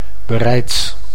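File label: "bereids", Dutch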